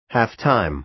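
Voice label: "half-time", American English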